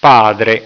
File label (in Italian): "padre", italiaans